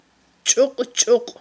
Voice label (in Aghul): "чIукь-чIукь", Aghul